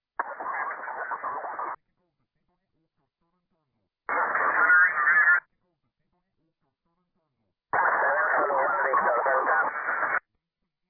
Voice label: CQWW SSB USB 21MHz